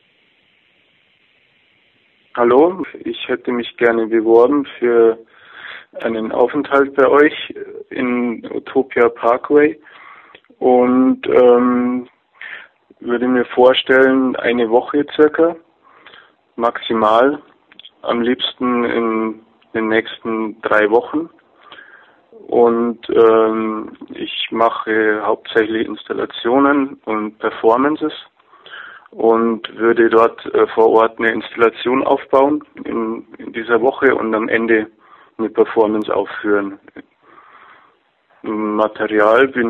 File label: Voicemail